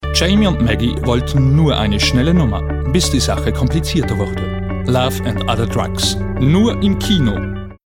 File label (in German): Kinotrailer